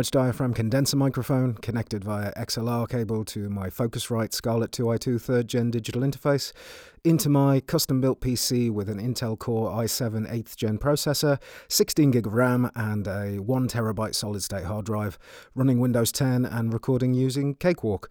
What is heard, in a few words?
home studio raw audio sample 24 bit depth 192000 Hz sample rate